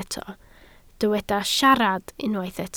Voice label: "siarad", Welsh

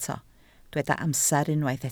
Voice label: "amser", Welsh

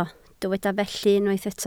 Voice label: "felly", Welsh

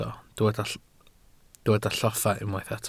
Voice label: "lloffa", Welsh

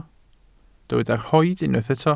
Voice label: "rhoid", welsh

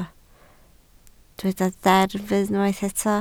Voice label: "deddf", Welsh

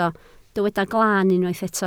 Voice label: "glan", Welsh

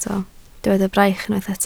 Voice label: "braich", Welsh

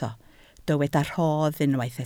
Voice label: "rhodd", Welsh

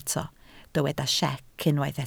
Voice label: "siec", Welsh

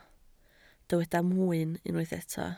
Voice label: "mwyn", Welsh

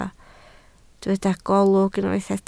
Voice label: "golwg", Welsh

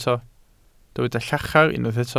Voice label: "llachar", Welsh

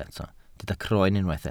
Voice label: "croen", welsh

